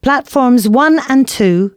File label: female, announcements